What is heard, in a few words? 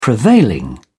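"prevailing", British English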